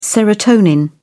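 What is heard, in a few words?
"serotonin", British English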